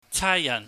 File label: "chaaiyahn", cantonese